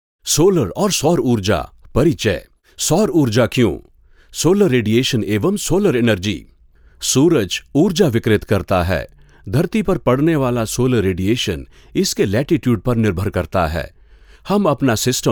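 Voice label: e-learning hindi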